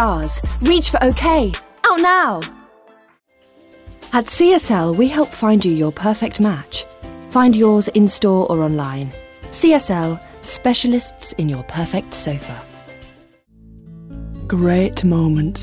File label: Commercial